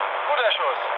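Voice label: German player killed enemy mood low, speech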